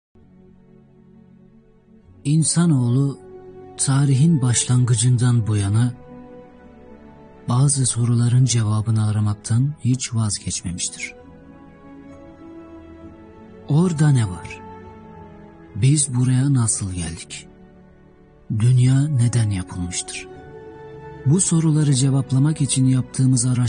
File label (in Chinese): 土耳其语男, 舒缓; 外语; 小语种